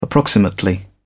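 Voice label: "approximately", British English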